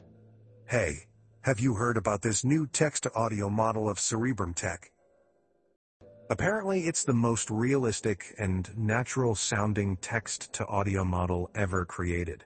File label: announcer